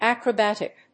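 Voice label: "acrobatic", English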